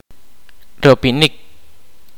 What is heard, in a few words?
"jump", kaqchikel